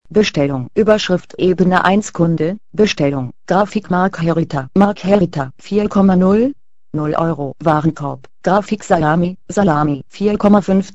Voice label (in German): Layout Mit Tabelle Screenreader